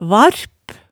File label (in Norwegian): "varp", hemsedalsmaalet tale